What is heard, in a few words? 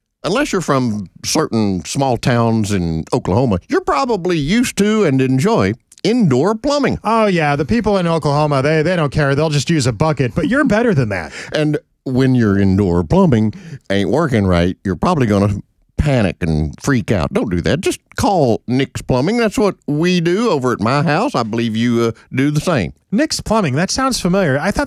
RADIO AD; nicks plumbing radio ads